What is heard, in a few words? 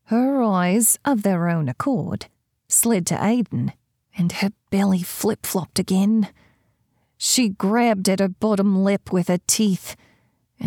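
Australian Accent, Female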